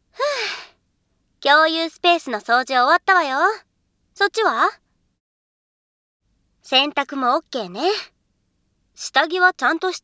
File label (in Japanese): 声のみ ノイズ除去なし